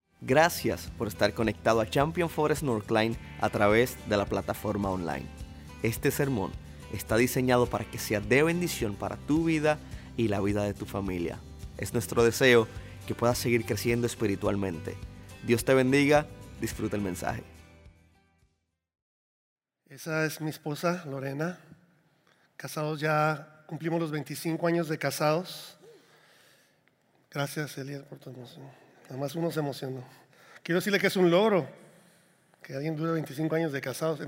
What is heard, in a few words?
Sermon Spanish